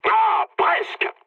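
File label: bomb failure, mood low, French; War Thunder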